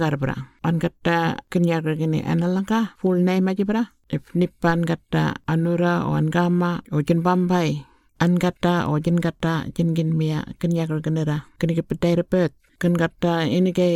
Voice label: Burial Notification Burarra; The Deceased; burial and cremation; information recorded in 18 aboriginal languages; Burial and Cremation Act 2022